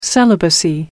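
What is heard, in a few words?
"celibacy", British English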